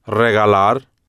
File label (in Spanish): "regalar", Spanish